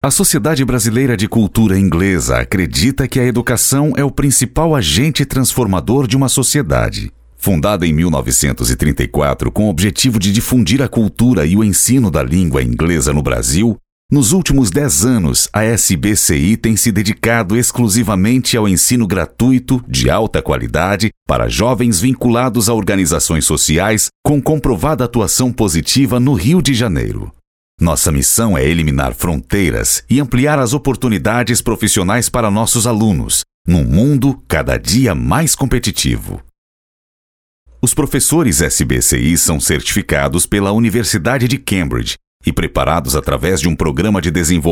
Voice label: Brazilian Portuguese, male

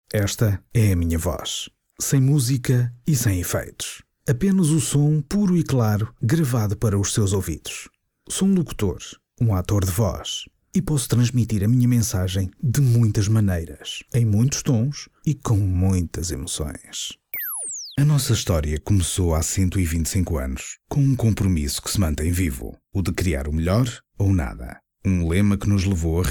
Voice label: Portuguese Male

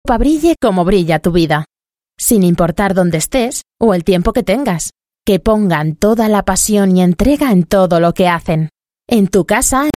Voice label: European Spanish Female Corporate

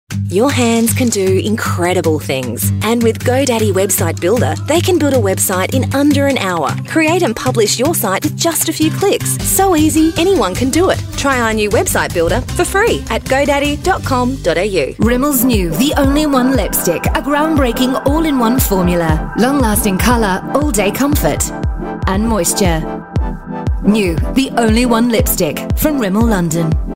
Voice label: AU English TVCs

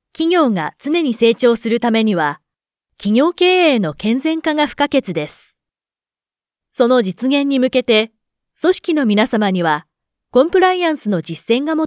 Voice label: Japanese Corporate, japanese female voices

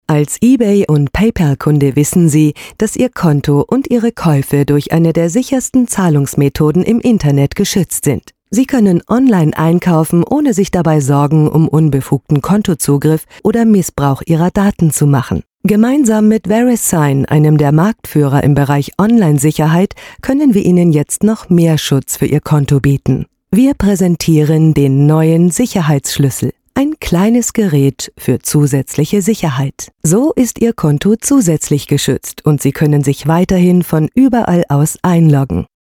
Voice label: German Web

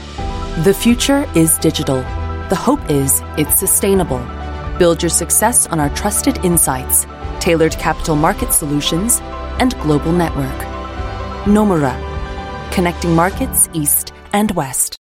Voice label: Mid-Atlantic English; Nomura

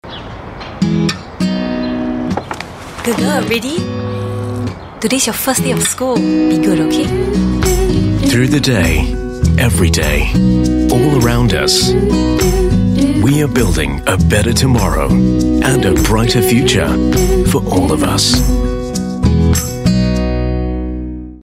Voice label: Asian English

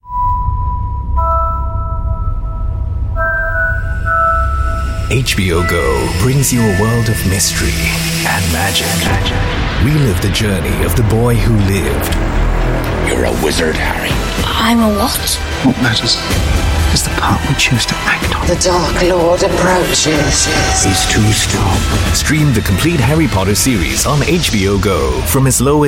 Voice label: Asian English Radio; Harry Potter; HBO GO

